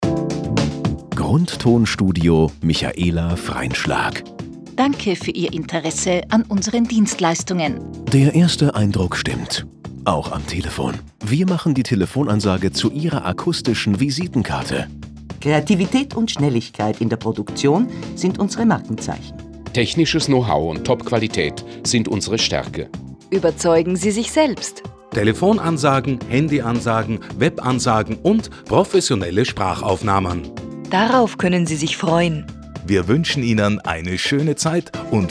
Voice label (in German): werbung mail